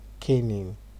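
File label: "caning", Nigerian Pidgin, male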